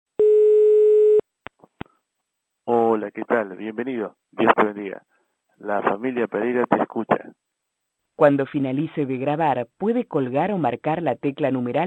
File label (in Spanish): mensajes telefonicos